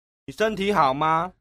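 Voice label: "ni shenti haoma", Mandarin Chinese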